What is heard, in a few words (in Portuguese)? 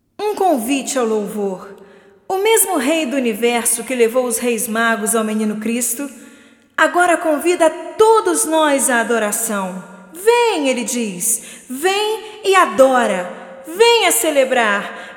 Narração